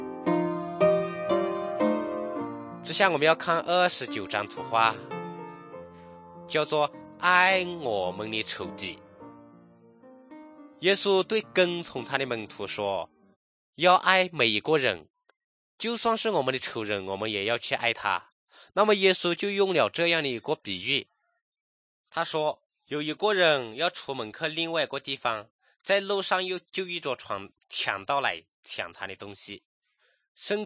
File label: good news shan, drama